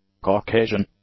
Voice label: "Caucasian", English; adjectives